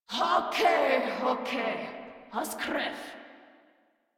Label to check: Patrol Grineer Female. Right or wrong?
Right